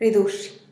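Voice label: "ridux", Teotitlán del Valle Zapotec; bark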